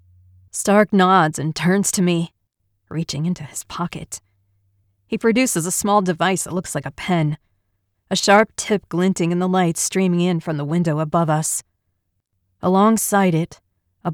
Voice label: Dark Romantasy, feminine voices